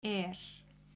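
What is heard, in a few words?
"er", Icelandic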